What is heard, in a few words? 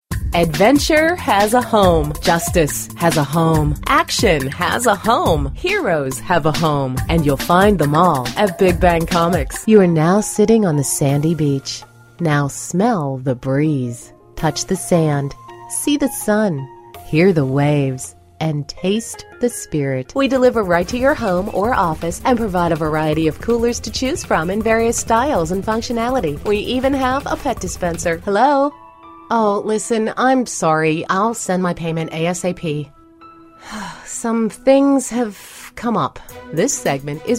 Television